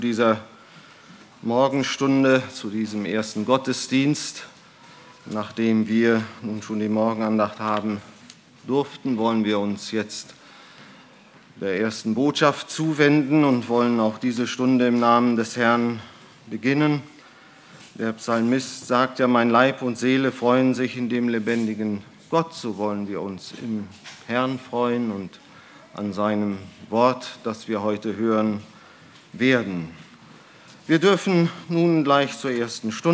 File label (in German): Ansage; Christus ist mein Leben